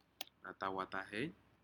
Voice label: "natau atahen", Aneityum; shellfish

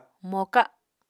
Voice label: "moka", Apatani

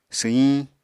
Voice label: "swyiñ", apatani

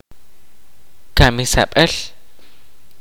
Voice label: "Slaughterhouse", kaqchikel

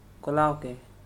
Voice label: "open", Korku

